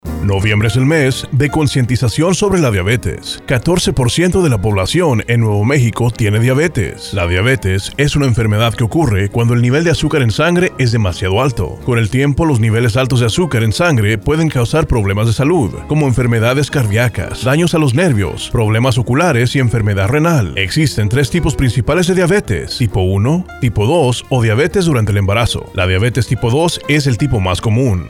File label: PSA Spanish; November D-month